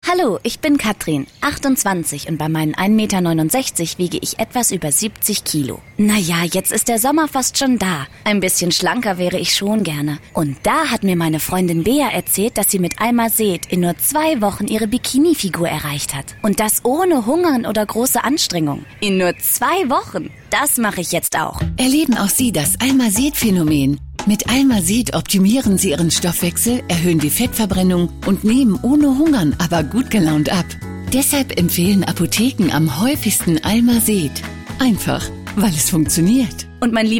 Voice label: Freundlich; Fremdtake

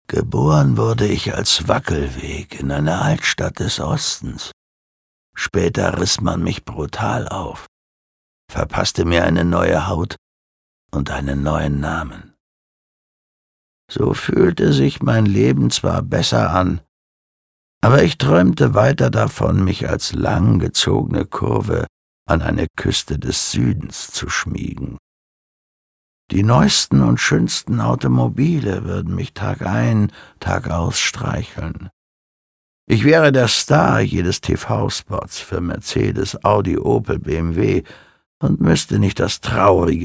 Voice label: Schauspiel Rau

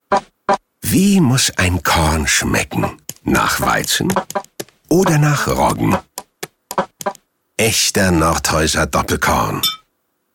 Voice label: Werbung Rau

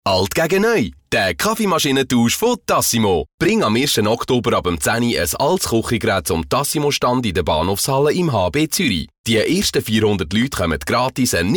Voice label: Werbung Dynamisch

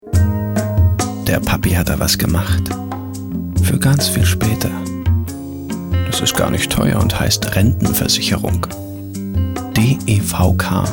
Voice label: Sanft; Fremdtake

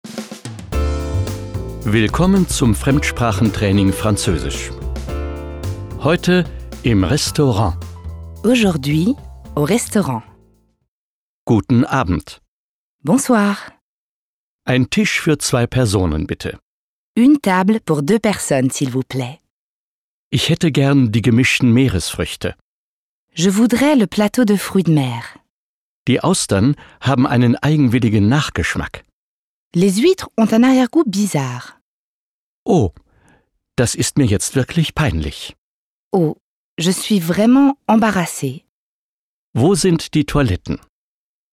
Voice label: FR Kommentar Neutral

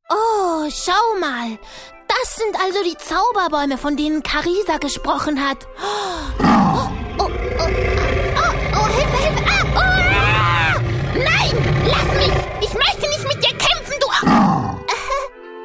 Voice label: Charge Comic

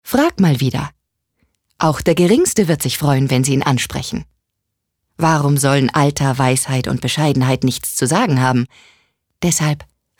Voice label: Werbung Neutral

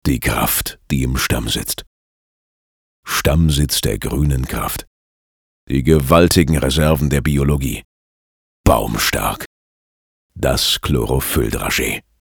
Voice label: Markant; Baumstark

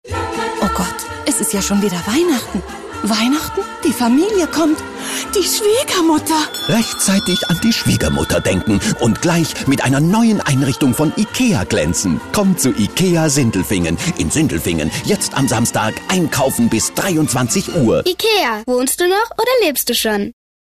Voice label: Hysterisch; Fremdtake

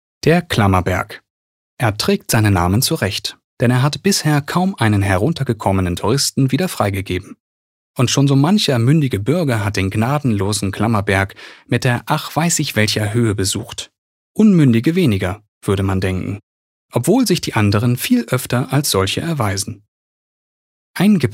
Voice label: Kommentar, Neutral

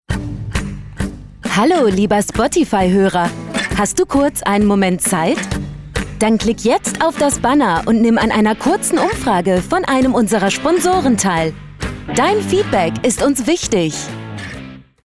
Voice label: Fremdtakte Dynamisch

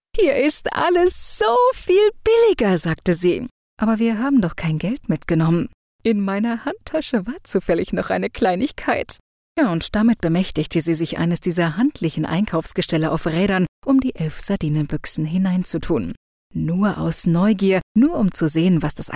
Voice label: Schauspiel Lebendig

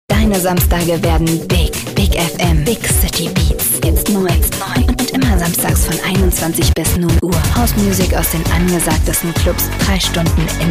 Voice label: Werbung Lebendig

